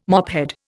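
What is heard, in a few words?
"mophead", Indian English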